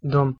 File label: "dom" Bosnian